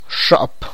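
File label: Tlacochahuaya Zapotec; six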